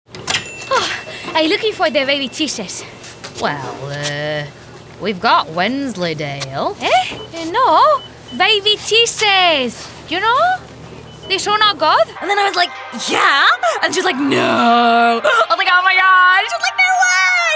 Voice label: Character

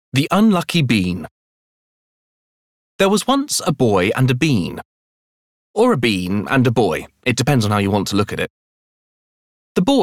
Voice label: Audiobook; The Unlucky Bean

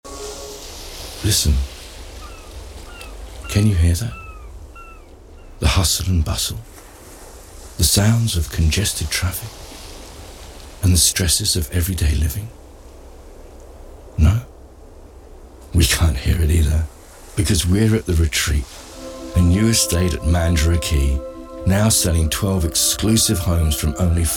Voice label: commercial

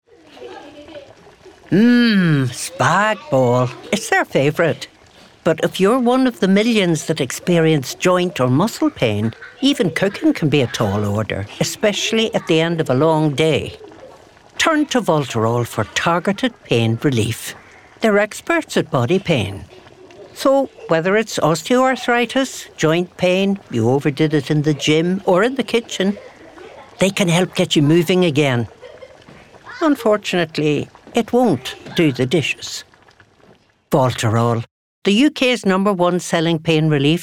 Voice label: Commercial; Voltarol

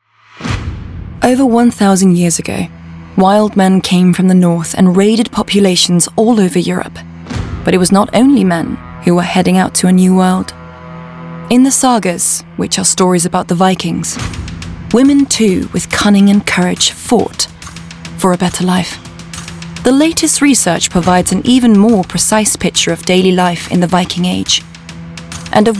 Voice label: documentary; VIking Women

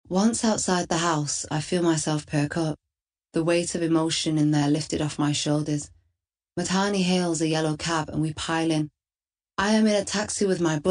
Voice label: AUDIOBOOK; MY FATHERS' DAUGHTER

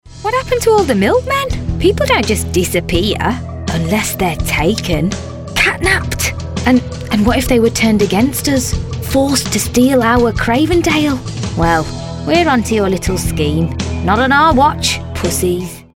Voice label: COMMERCIAL; CRAVENDALE

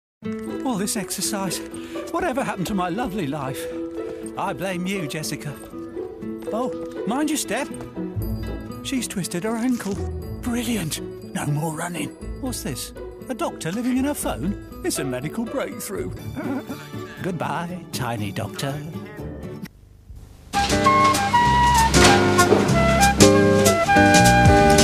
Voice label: commercial reel

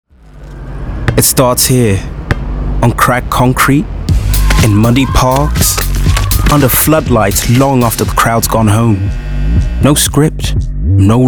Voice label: Commercial; Nike

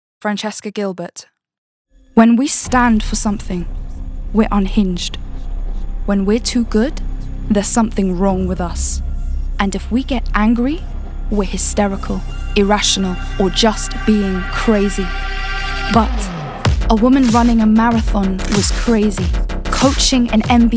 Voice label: Commercial Reel

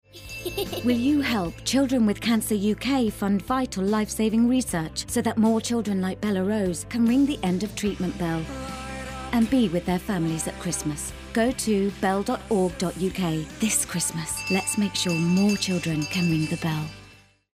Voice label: Radio Mix

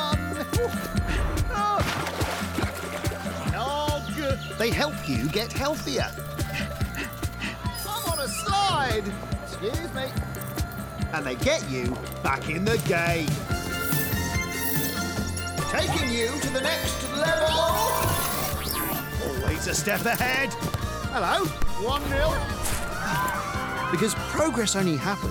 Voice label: Vitality Commercial

